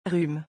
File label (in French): "rhume" French; vocabulary; doctors office